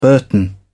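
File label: "burton", British English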